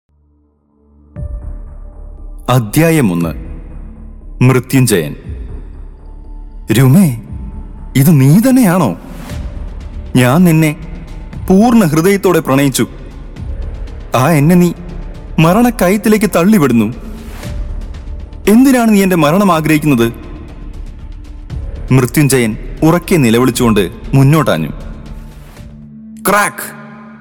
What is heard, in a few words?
Audio book Malayalam